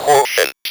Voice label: "QUOTIENT", Speak & Spell

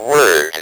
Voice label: "WORD", Speak & Spell

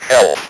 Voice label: "HEALTH", Speak & Spell

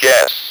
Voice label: "guess", Speak & Spell

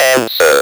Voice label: "ANSWER", Speak & Spell

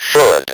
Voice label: "should", Speak & Spell

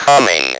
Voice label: "COMING", Speak & Spell

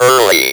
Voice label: "early", Speak & Spell

